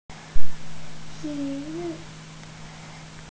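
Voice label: "ti3 yu4", Mandarin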